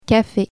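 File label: "cafe", French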